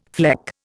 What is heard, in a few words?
"fleck", Indian